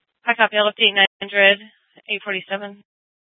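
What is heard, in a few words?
police dispatch, scanner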